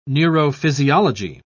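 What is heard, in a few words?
"neurophysiology", British English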